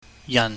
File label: "yan", pingyam